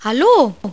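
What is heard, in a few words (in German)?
"hallo", German; CD-ROM Magazin 1996 September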